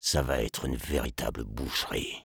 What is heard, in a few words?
sniper taunts, fr